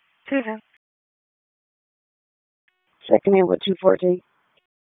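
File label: Police Northeast District Dispatch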